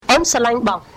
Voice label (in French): khmer